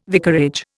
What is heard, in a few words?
"vicarage", Indian